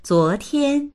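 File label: "zuo2tian1", Mandarin Chinese; 昨天; 昨日; HSK１級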